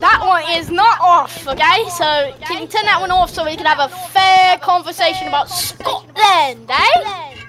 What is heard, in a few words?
scotland ehh